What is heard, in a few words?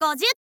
"gozyu", girl; voice